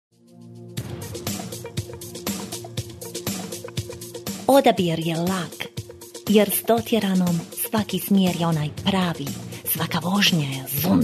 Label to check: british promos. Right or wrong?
Wrong